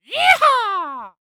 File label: Human Cowboy Say "Yee Haw" Voice